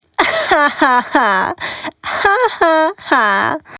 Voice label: Trash Can, GIRL